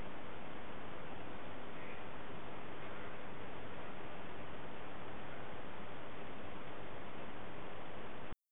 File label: Male; pilesgrove residence